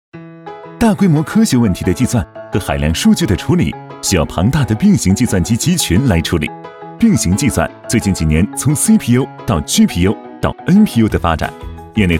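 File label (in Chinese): 男国大音-年轻-活泼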